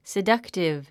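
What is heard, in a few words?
"seductive", English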